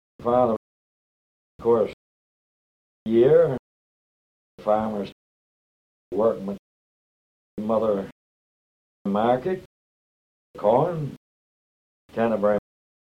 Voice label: kent, accents; denton

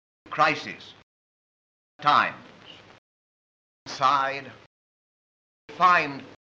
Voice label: rp n price, kent examples; stanley baldwin

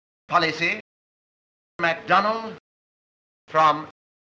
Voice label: rp lot; stanley baldwin

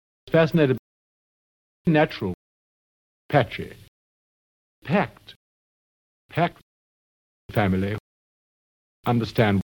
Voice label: rp trap, accents

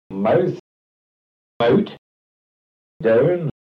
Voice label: kent mouth, accents

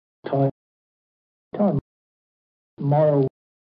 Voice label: kent price, kent accent

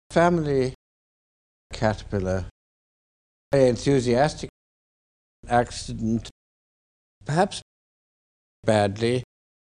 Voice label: rp trap, accents

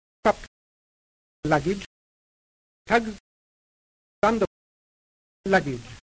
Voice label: rp strut

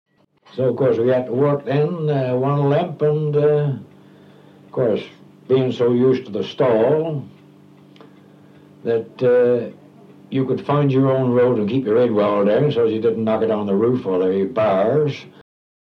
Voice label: kent extract, kent examples accents; staple

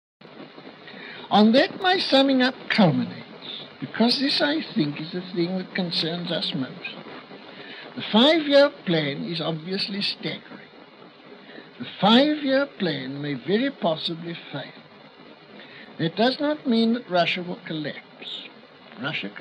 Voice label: bbc, accents sbe; hg wells; bbc 1931; russian

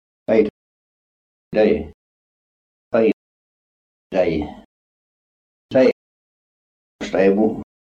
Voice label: kent goudhurst face, accents

